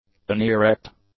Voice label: "unerect", english; adjectives